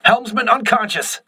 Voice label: voice message helmsman contused, crew ships english